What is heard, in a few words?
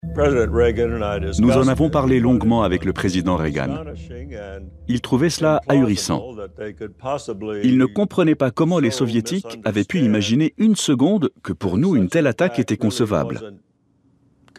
Voice Over; Reagan Gorbatchov